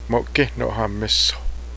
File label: colt, cheyenne language